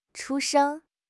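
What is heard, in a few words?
"chu1 sheng1", Chinese; HSK4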